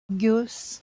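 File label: "geus", Proto-Indo-European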